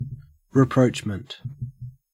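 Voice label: "rapprochement", Australian English; pronunciations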